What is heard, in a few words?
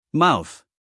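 "mouth", American English, male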